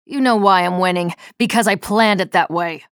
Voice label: chatter positive; nyx; frag

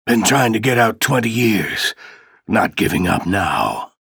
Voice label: chatter neutral; ranger

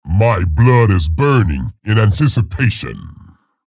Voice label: chatter positive; scalebearer; frag

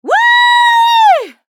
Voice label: chatter positive; athena; frag

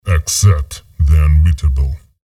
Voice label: chatter neutral, en; visor

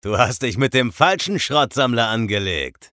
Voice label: vo chatter positive; eisen; frag

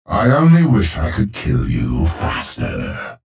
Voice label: chatter neutral; clutch

